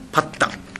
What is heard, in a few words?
"patta", minamiyamagata